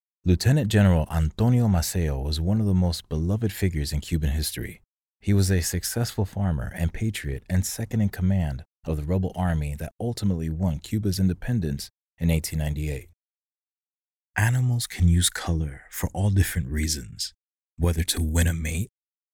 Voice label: Elearning Demo Audio only